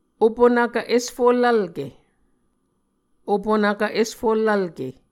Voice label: "opunvkv esfullvlke", Muscogee